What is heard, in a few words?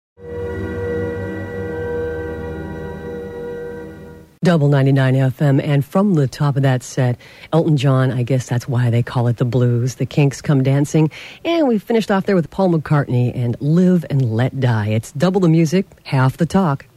Radio aircheck